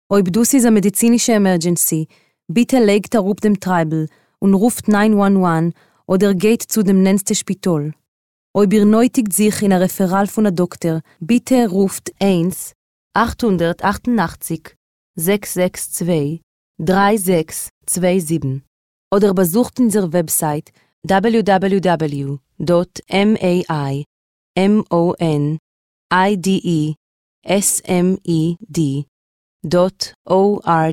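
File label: Yiddish, voice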